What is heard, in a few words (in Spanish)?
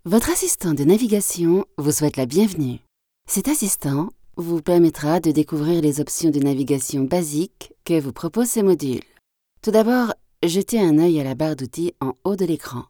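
e-learning, French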